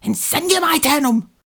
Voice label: "incendium aeternum" rushed, incantations older female; fire spells; psfx